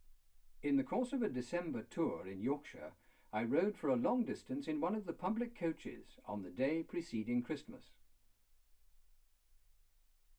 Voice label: male speech english